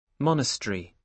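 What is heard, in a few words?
"monastery", British English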